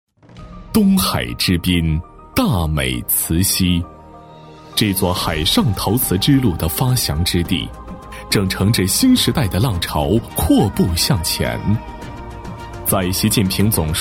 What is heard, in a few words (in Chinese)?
男 宣传片 大气抒情; 慈溪